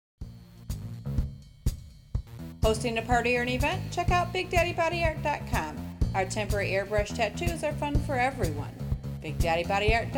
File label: radio ad; big daddy body art